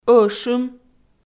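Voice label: "ӧшым", Komi, female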